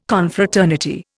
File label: "Confraternity", Indian